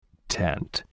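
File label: "tent", English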